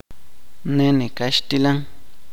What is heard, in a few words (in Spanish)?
"pollo", chuj